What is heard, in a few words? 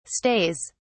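"stays", British English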